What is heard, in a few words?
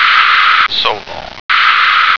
"solar", American